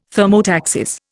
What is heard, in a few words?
"thermotaxis", Indian